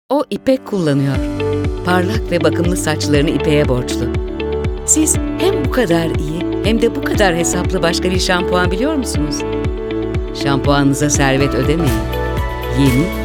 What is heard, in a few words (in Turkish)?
kampanya tempolu